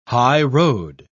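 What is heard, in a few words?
"highroad", British English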